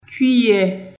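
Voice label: Haitian Creole; cuillere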